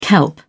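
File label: "kelp", British English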